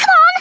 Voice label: "HURRY", Scouser